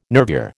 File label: "nervure", American